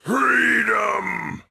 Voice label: Orc Taunt Death